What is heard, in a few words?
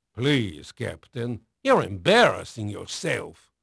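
Portuguese male, voice; Pirates Of The Caribbean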